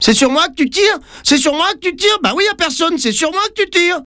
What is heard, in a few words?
dialogue skateboard kid